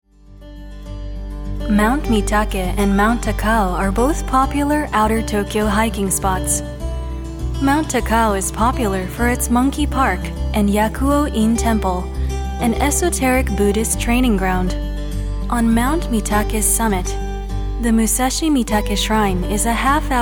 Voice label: documentary

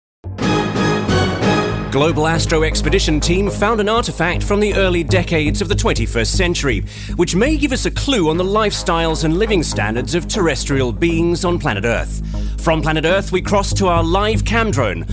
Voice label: news

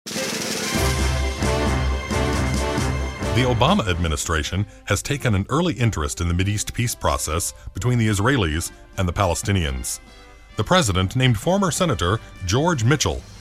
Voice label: news

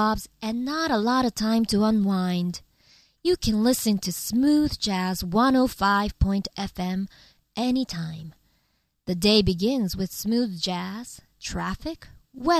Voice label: radio

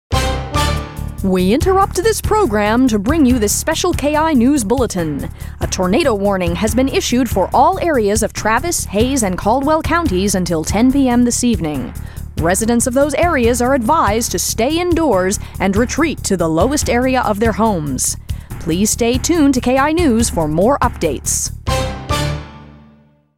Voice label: news

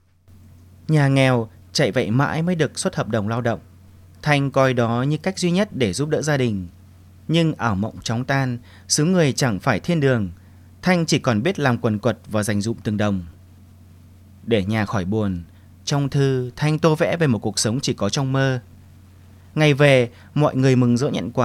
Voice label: story

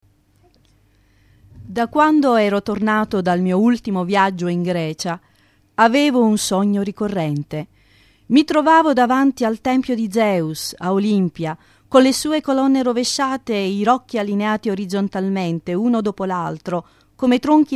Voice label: reading

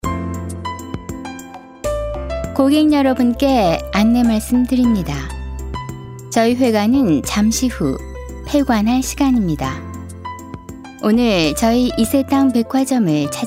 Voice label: announcement

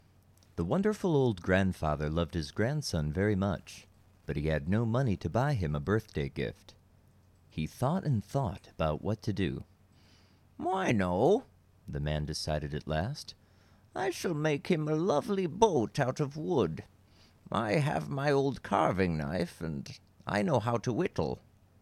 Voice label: story

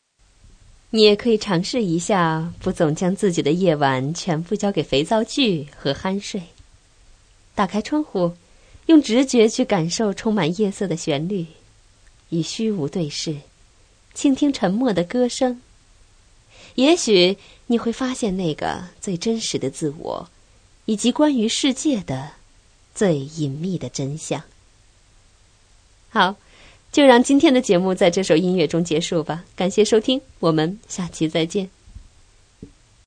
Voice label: radio

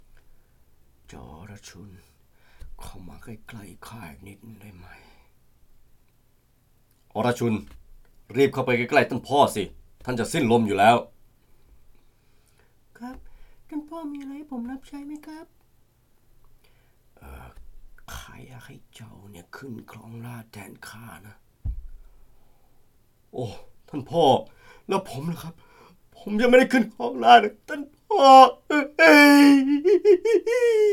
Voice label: story

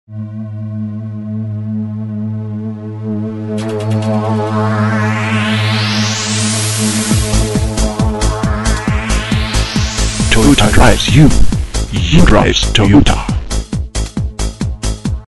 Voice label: english

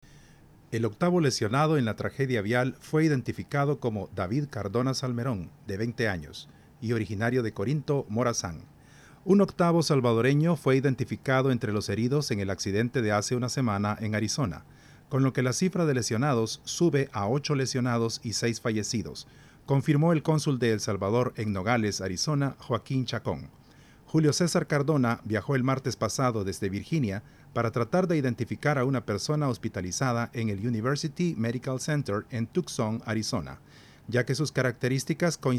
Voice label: news

